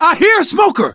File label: heard smoker, survivor voice; source engine; left 4 dead 2; manager